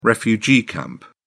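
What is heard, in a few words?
"refugee camp", British English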